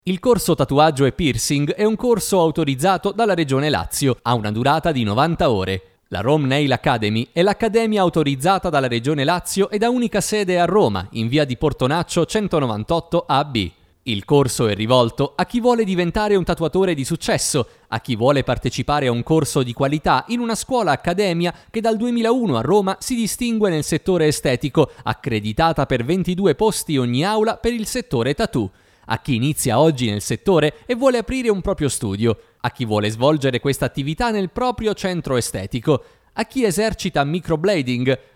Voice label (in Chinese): 意大利语男 干音